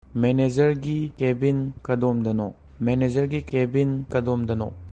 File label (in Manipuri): "Managergi cabin kadomdano?", Manipuri; Where is the manager’s cabin?